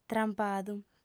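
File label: "trampadu", female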